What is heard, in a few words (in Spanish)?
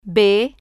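"b", spanish, female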